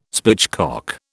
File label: "spitchcock", British